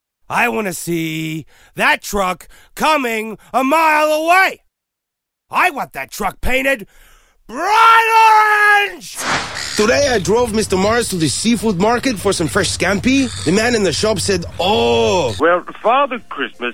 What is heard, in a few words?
CHARACTER